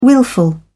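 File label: "willful", British English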